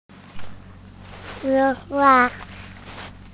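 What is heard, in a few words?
"shuo1 hua4", Mandarin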